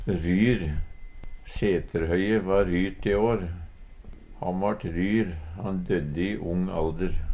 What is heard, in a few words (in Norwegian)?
ryr, Numedalsmål